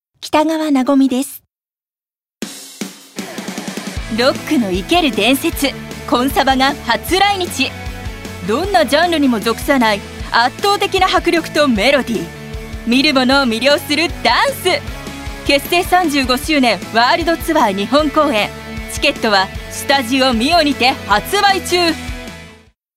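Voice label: commercial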